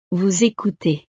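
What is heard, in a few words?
"vous ecoutez", French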